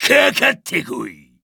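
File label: victory, Japanese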